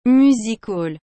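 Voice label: "music hall", French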